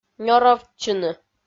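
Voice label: "нёровтчыны", Komi, female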